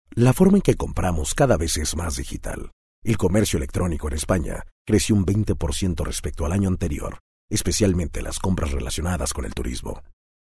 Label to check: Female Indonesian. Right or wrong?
Wrong